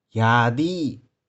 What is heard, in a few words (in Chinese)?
"ya3di6", 玉環